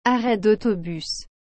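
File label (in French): "arret d'autobus", French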